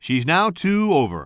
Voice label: commentator